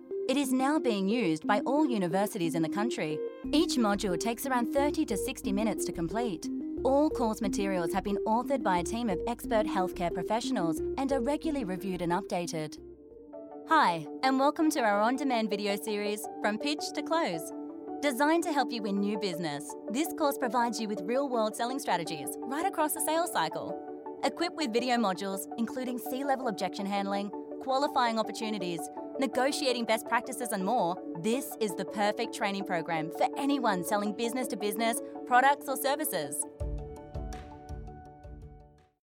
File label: No Slate E Learning